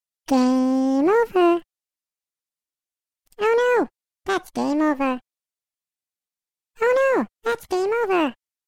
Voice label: Cute Pixie Says Game Over